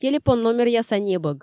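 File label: "телепон номеръяса небӧг", Komi, female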